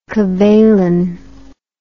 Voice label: "cavaillon", English